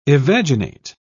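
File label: "evaginate", British English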